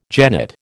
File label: "jennet", American